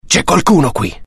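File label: curious, Italian; mohaa; dialogue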